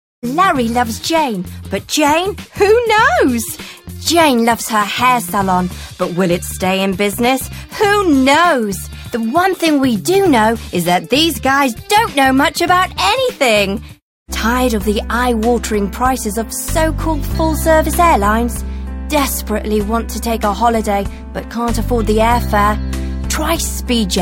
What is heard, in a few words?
UK